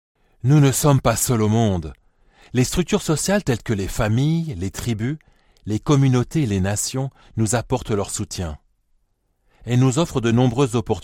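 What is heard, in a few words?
French, Corporate; GoLocalise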